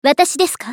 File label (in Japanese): ファイター女 選択